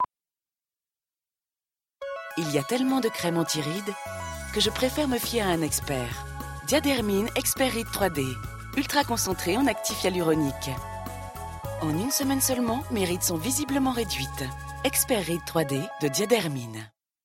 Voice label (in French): Souriante; Diadermine